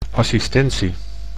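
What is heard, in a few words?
"assistentie", Dutch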